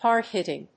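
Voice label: "hard-hitting", English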